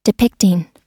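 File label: "depicting", US English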